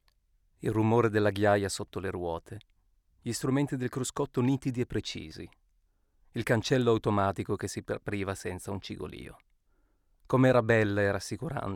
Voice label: Audiobook; Jekyll